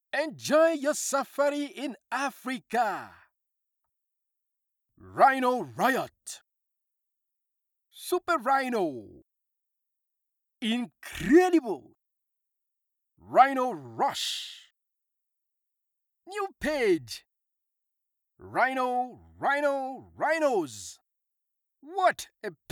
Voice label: english african video games